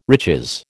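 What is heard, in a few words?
"riches", American English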